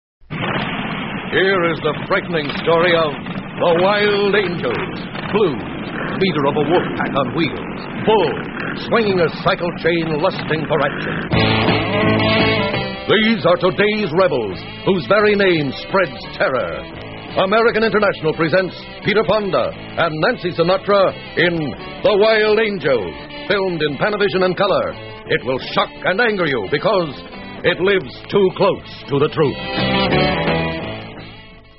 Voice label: movie promo, radio spots; the wild angels; psychedelic promos and radio spots